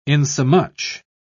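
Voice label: "insomuch", British English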